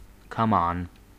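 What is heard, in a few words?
"come on", American English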